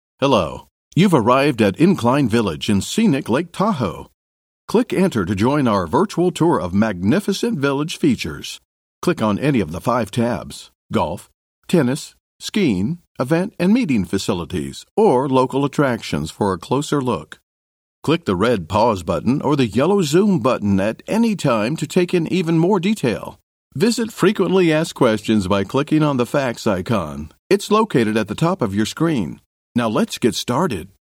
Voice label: english american corporate videos